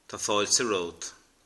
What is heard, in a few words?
"you're welcome", Irish